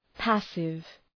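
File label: "passive", English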